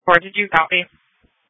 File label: Sheriff Dispatch